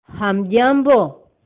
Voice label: how are you, swahili